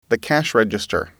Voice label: cash Register, englishAudio

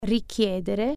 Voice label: requiere, italian

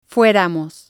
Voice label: "fueramos", spanish

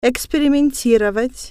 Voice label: experiment, russian

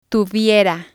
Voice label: "tuviera", Spanish

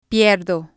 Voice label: "pierdo", spanish

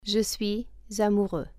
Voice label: i am in love male, French

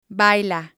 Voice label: "baila", spanish

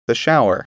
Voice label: "shower", English

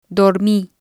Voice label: "dormi", Spanish

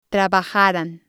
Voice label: "trabajaran", Spanish

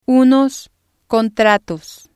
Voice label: unos contratos, Spanish

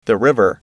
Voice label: "river", English

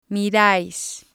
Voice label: "mirais", spanish

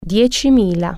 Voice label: ten thousand, italian

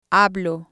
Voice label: "hablo", spanish

